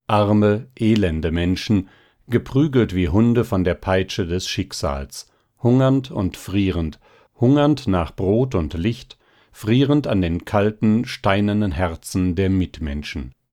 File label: german